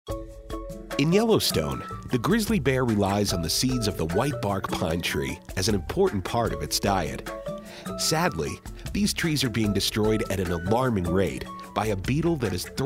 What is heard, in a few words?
Narration